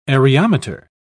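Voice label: "areometer", English, British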